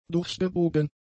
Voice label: "durchgebogen", German; adjectives

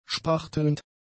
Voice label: "spachtelnd", german; adjectives

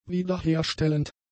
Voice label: "wiederherstellend", German; adjectives

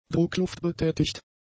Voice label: "druckluftbetätigt", German; adjectives

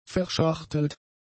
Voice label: "verschachtelt", german; adjectives

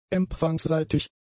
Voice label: "empfangsseitig", german; adjectives

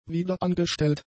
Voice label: "wiederangestellt", German; adjectives

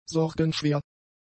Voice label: "sorgenschwer", german; adjectives

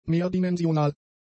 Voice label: "mehrdimensional", German; adjectives

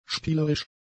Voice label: "spielerisch", german; adjectives

